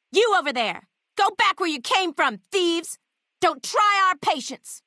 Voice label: female adult leave water; FNV